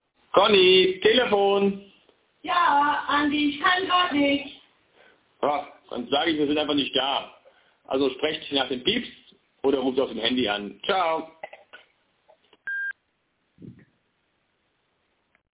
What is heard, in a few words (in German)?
Ansage